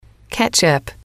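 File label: "ketchup", English, female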